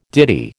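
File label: "ditty", American English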